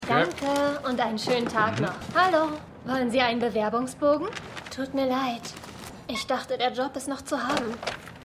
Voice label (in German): Kassiererin